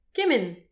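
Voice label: "kemenn", Breton; petit precis de prononciation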